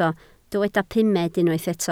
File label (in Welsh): "pumed", Welsh